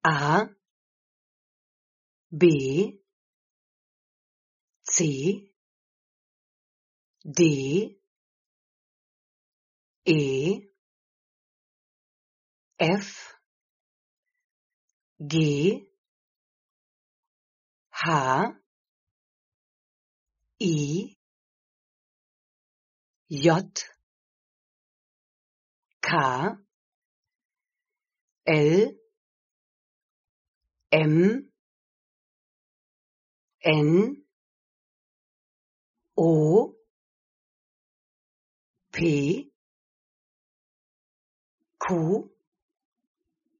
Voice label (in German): Deutsches Alphabet